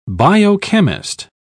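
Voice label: "biochemist", English, uk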